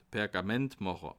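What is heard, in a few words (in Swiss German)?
"pergamentmacher", Bas Rhin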